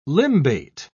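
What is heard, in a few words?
"limbate", British English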